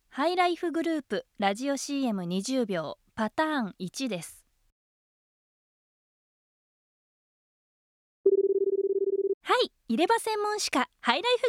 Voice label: radio cm; highlife